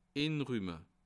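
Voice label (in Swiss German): "inrumme", Alsatian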